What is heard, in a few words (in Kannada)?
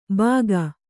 "ಬಾಗ", Kannada